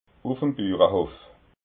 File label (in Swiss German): "burahof", alsace